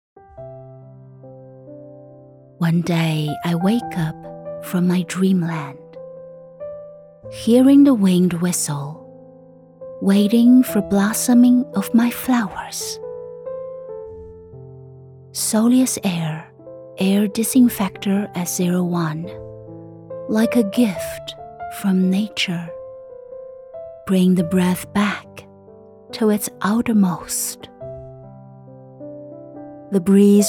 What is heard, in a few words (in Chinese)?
英 温柔 广告